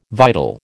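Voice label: "vital", American English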